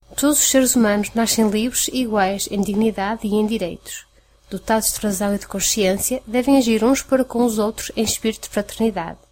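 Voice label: Portuguese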